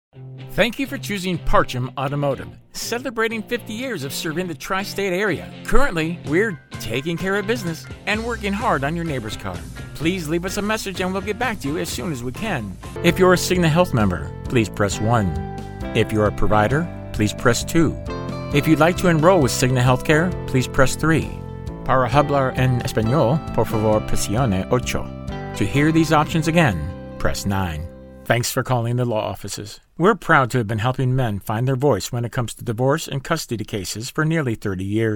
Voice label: IVR